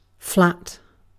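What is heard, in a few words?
"flat", British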